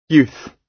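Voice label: "youth", British English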